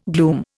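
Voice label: "gloomy", Indian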